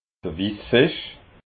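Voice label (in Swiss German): "wissfisch", Alsatian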